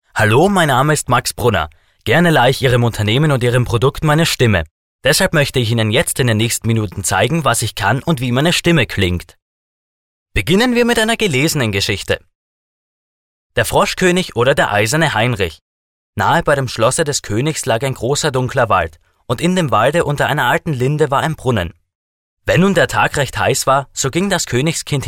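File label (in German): Kinder Neutral, Kinderstimmen; kleine Profisprecher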